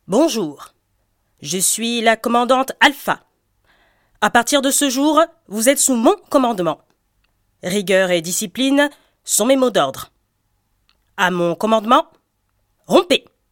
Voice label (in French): Présentation personnage sérieux autoritaire assuré adulte grave; Commandante Alpha